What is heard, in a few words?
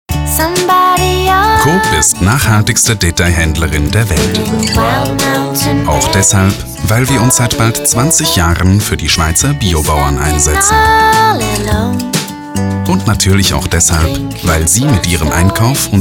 TV-Spot; Coop Naturaplan